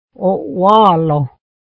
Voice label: meat, mohawk